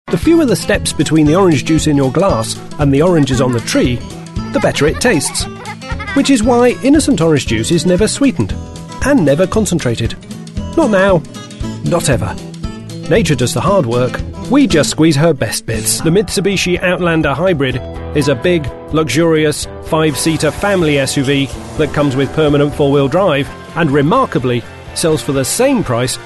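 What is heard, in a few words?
Mid Atlantic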